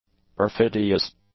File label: "perfidious", english; adjectives